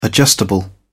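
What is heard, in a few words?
"adjustable", British English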